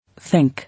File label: "Think", English